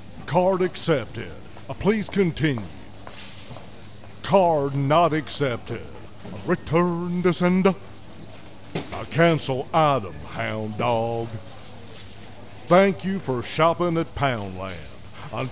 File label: Elvis, impression voiceover; Elvis Presley; Poundland